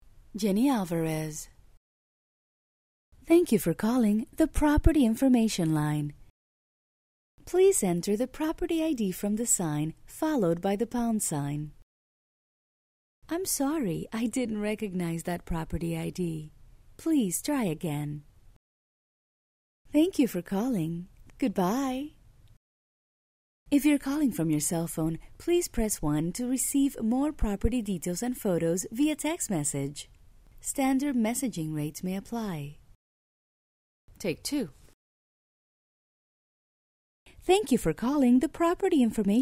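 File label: Telephone Prompts accented english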